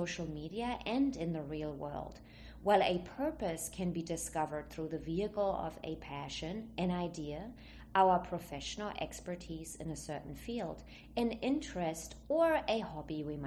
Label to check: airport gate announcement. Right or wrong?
Wrong